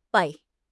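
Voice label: "bei4", Mandarin Chinese; HSK; HSK4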